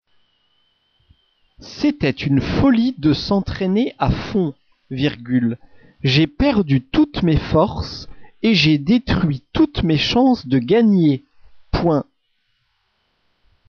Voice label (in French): dictee